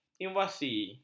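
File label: "invasi", Malay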